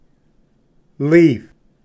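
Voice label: "leaf", English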